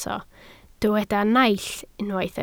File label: "naill", Welsh